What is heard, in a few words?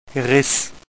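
"Riss", German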